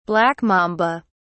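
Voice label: "black mamba", British English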